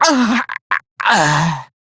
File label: Death, Male; Warframe; Railjack; Perrin Sequence